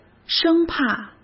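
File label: "生怕", Mandarin Chinese; chinese-flashcards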